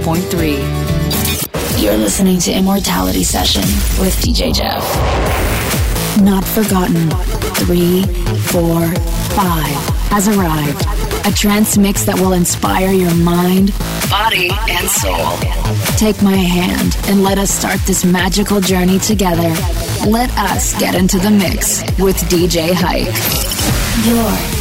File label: Radio Imaging English